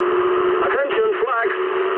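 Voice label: damaged anti-aircraft mood medium, speech English; War Thunder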